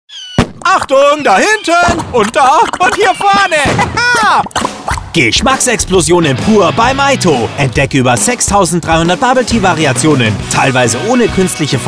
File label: Spot; Meito